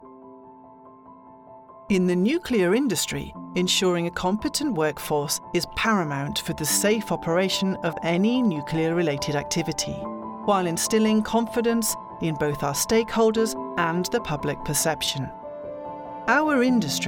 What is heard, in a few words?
E-Learning Explainer Voicereel English Accent